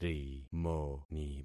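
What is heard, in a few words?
"rimoni", concatenated syllables; Affixing experiment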